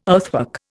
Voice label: "earthwork", Indian